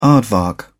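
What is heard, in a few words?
"aardvark", British English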